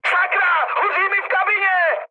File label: on fire, speech cze, mood high; War Thunder